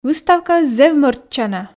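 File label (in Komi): "выставкаыс зэв мӧрччана", Komi, female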